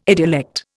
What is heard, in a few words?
"idiolect", Indian